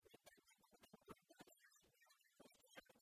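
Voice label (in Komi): ми пыдди пуктам пӧль-пӧчлысь бать-мамлысь чужан кыв, Komi, female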